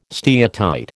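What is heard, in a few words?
"steatite", American English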